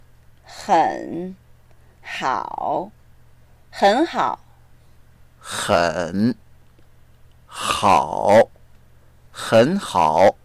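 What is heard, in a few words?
"hen3 hao3", Mandarin Chinese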